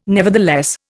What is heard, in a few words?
"nevertheless", Indian